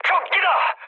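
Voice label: Korean enemy detected mood high; speech; War Thunder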